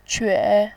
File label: "que3", voice